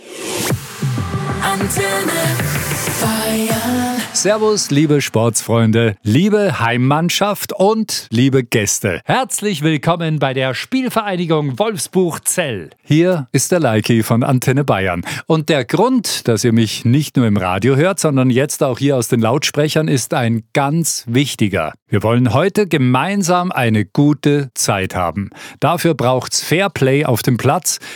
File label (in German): Stadiondurchsage; SPVGG Wolfbuch Zell